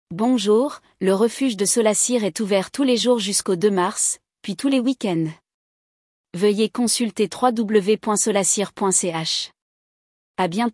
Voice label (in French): Speech Bonjour le Refuge